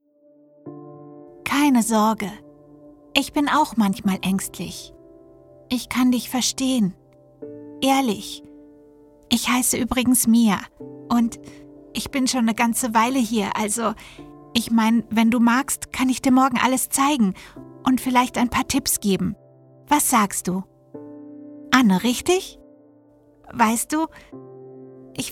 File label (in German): Trickstimme einfuehlsames Maedchen